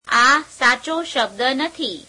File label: gujarati, this is not the correct word; [negation + adjective]; આ સાચો શબ્દ નથી; [ā seācheo śhebe'd netheī]